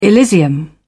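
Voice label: "elysium", British English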